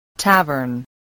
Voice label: "tavern", spelling